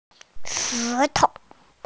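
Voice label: "she tou" Chinese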